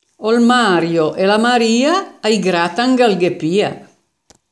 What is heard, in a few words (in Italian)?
Dialetto di Albosaggia; Mario è la Maria